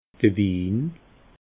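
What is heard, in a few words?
"win", Alsatian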